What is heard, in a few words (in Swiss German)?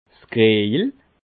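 "kriejel", Bas Rhin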